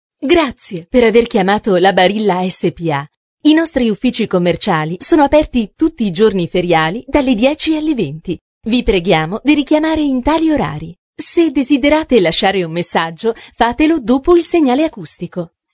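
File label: Italian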